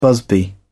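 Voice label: "busby", British English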